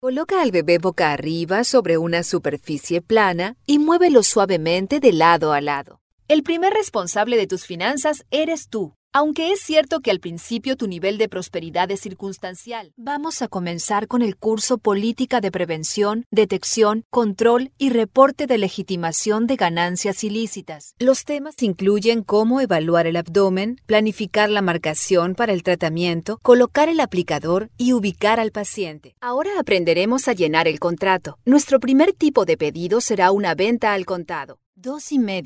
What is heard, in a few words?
educational latam